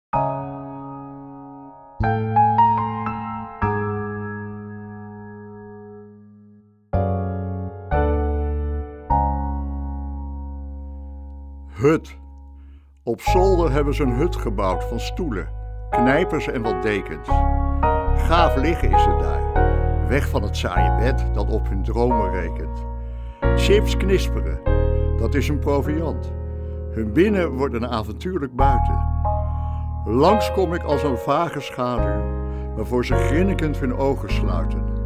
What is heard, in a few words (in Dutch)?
gedicht-muziek